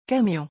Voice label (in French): "camion", French